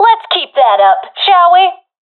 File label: sees team combo, professional fem; vo; Counter Strike Model Pack; CS:GO